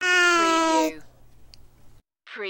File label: Toddler Says Hi